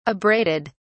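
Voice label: "abraded", English, British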